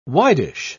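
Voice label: "widish", British English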